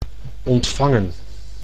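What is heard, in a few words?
"ontvangen", Dutch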